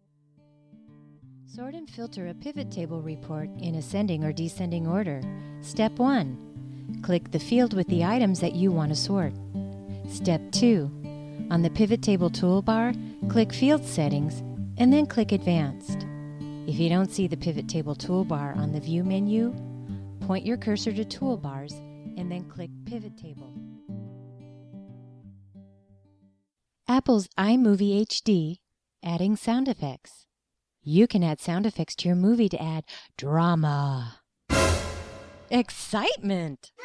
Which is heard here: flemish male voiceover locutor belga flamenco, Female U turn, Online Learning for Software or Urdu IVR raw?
Online Learning for Software